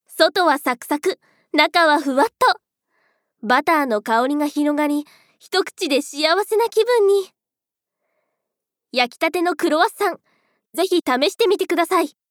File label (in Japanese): ナレーション